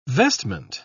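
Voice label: "vestment", British English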